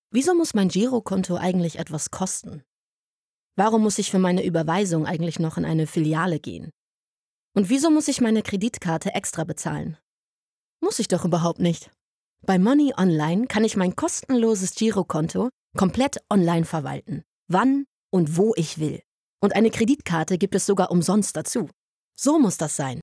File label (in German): Werbung DE